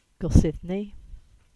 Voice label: Cornish; Goldsithney